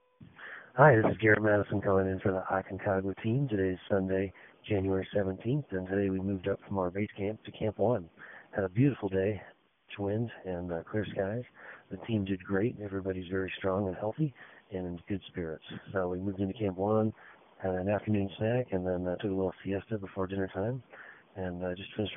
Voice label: voicemail